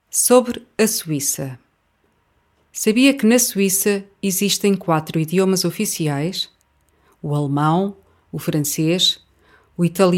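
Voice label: Portuguese; schweiz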